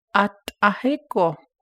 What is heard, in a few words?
navajo